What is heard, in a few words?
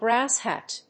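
"brass hat", English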